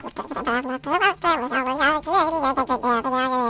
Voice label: jabber; chroma solstice